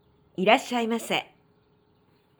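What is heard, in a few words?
"irassyaimase", Japanese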